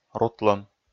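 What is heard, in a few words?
"рутлӧм", Komi, male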